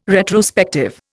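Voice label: "retrospective", Indian English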